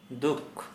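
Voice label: Santali, male, sad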